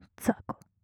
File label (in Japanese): ざこ・強く・極近・右, ASMR; マゾオスのあなたに敗北射精の快楽を叩き込む音声